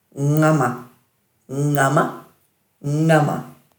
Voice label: "ngama-", Dhurga